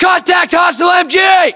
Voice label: US threat mg, battlechatter, english; localized english